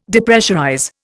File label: "Depressurize", Indian